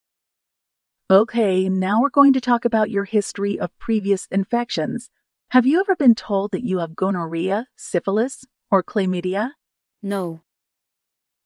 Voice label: Conversation; Bedside Manner Intermediate